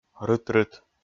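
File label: "рыт-рыт", Komi, male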